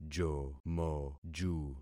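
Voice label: "jomoju", concatenated syllables; Affixing experiment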